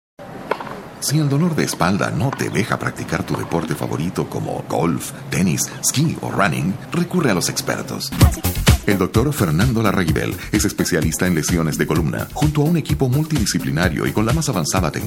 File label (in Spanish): comercial